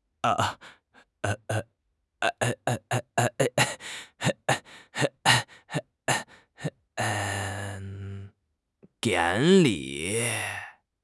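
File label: "典礼", Mandarin Chinese; HSK; HSK6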